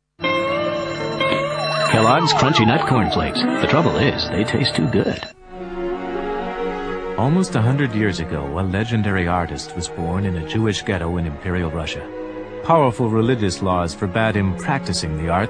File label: commercial